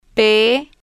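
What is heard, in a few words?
"p", spanish, female, slow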